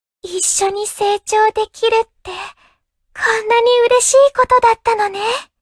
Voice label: upgrade, ja; cookie run